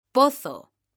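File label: "pozo", Spanish, female; mekanta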